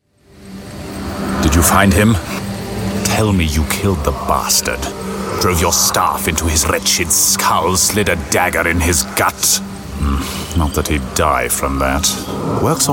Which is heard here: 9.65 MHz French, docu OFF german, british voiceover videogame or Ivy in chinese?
british voiceover videogame